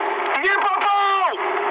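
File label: Russian bomb failure mood high, speech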